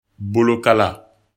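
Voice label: "bolokala", Bambara; corps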